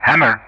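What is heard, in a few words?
"Hammer", English; Ka-50; Player Callsign; DCS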